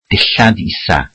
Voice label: welsh underwear